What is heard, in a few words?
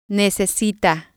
"necesita", spanish